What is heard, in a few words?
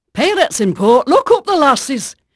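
English female, voice; Pirates Of The Caribbean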